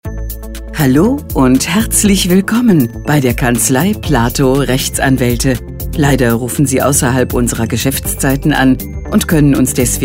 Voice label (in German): Ansage stereo; Telefonansage Kanzlei